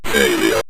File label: "failure", Synth Voice; Kenney's Sound Pack